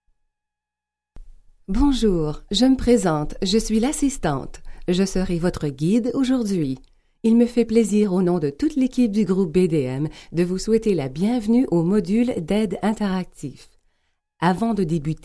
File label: french canadian documentaries